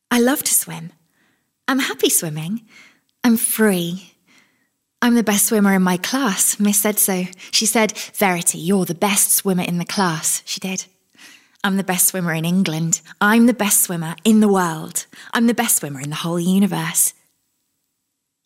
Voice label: acting